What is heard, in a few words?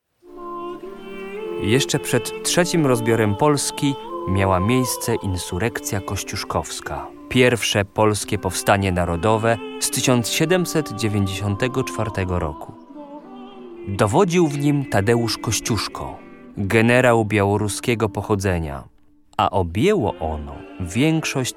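Polish, Narrative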